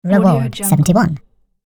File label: cartoon voice says reward